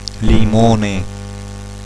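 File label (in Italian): "limone", Italian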